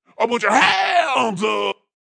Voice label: Vocal Deep; Put Ur Hands Up